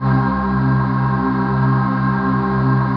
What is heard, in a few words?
VOX, VOCODER